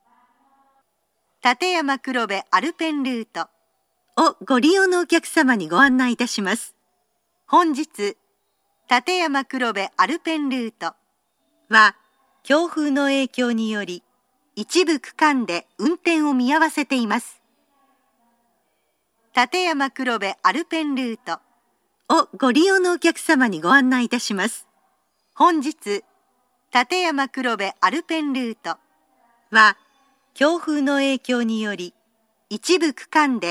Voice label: matsumoto suspended service high winds, station; tateyama-kurobe-alpen-route; jr-east